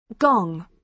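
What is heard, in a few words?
"gong", British English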